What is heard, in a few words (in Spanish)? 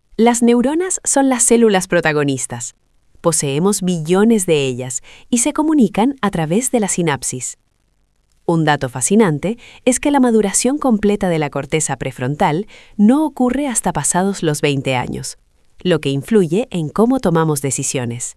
locucion